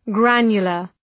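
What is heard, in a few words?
"granular", English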